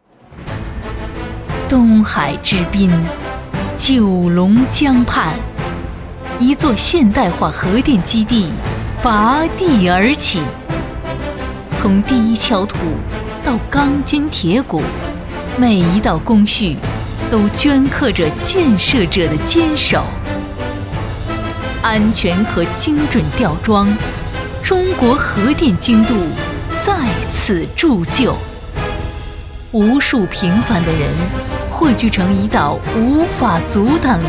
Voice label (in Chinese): 女 宣传片 核电基地